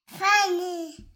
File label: funny